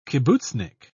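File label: "kibbutznik", British English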